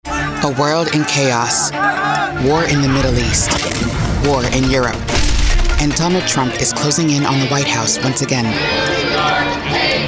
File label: Political Dem Concerned Flat; Trump